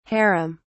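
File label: "haram", British English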